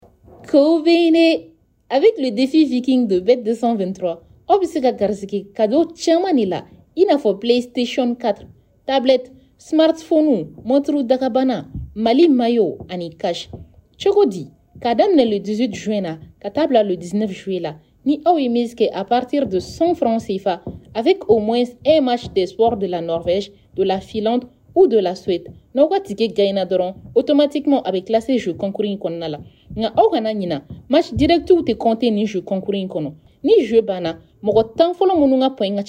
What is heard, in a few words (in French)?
voix off